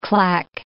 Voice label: "clack", English